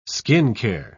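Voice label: "skincare", British English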